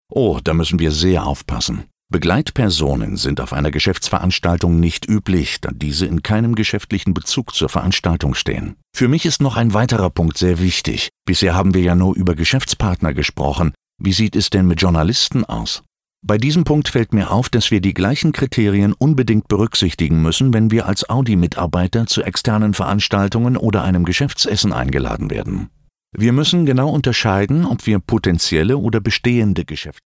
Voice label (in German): elearning character; AUDI AG